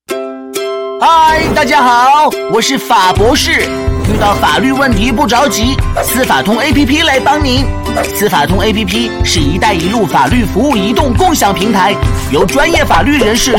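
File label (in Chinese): 男国大音-MG-卡通感; 司法通app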